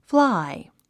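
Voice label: "fly", English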